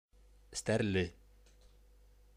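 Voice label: "Стәрле", Bashkir; Стерля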